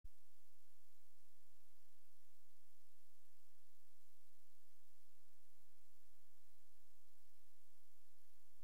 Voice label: ATC RECORDING